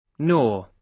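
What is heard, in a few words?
"nor", British English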